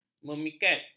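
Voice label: "memiket", Malay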